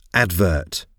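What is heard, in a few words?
"advert", English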